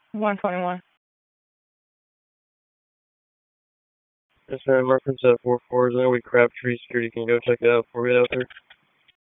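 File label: Police District Dispatch, p25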